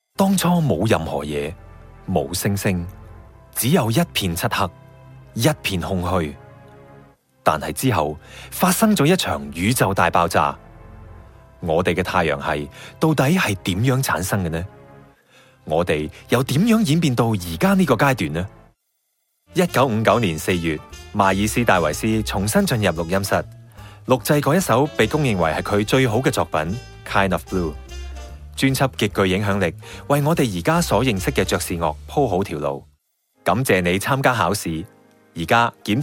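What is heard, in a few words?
Cantonese Narration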